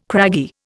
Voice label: "craggy", Indian